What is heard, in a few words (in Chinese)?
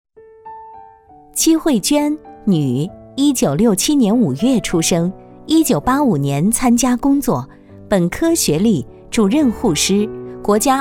女 医护事迹; 静安区医护事迹